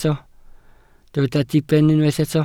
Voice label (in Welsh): "diben", Welsh